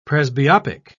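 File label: "presbyopic", British English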